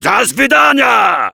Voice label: Heavy thanks, fr; "до свидания !"

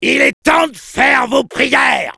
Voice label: Soldier taunts

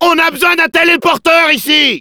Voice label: Demoman need teleporter, fr

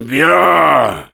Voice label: Heavy good job; "Bien !"

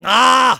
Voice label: Medic jeers

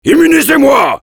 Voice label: Heavy activate charge, fr; "Immunisez-moi !"

